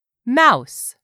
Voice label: "MOUSE", english; 3000 words